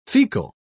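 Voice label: "faecal", British English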